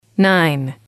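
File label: "nine", English, female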